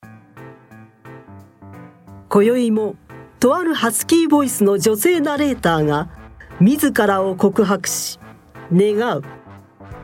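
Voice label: narration variety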